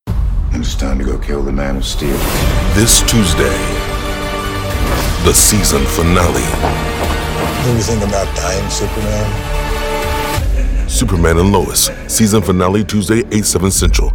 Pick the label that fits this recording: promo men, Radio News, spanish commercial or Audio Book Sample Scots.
promo men